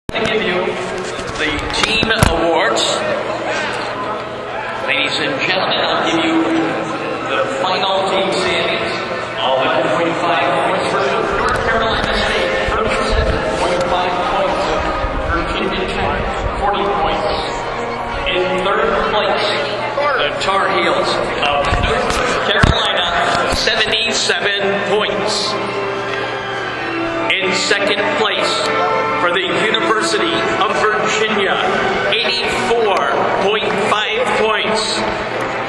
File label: championship announcement